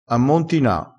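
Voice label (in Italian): "ammontina", dialettale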